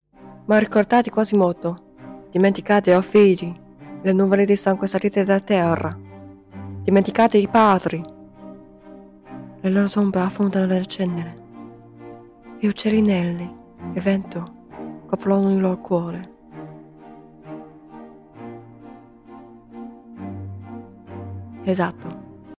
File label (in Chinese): 意大利语 影视 双角色 配音